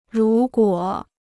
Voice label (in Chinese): "如果", Chinese